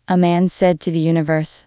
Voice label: speech converted

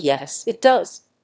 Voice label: emotion; S2S-Bench; LLaMA omni

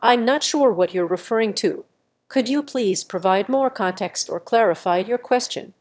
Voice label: emotion

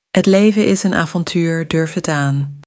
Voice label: dutch; nl-dutch

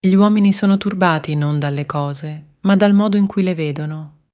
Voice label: italian, spoken language

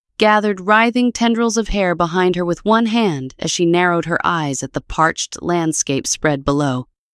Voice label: Angry; Mara-Jade-Heidi-Shannon-StyleTTS2; 11labs

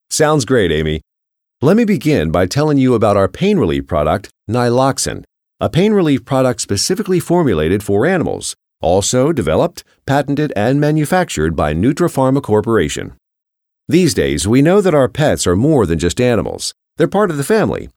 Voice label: male

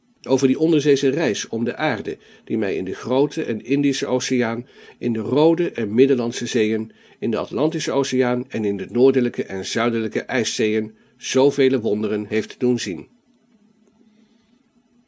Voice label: dutch

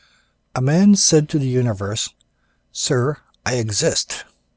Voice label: english sentence

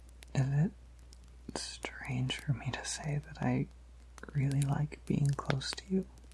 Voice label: Whisper ASMR

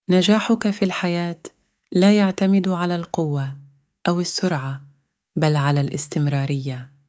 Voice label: arabic, spoken language

